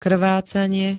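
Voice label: "krvácanie", Slovak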